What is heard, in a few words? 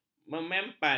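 "mempan", Malay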